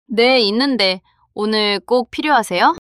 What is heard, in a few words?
neutral